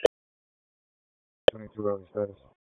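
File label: Police Northeast District Disp, radio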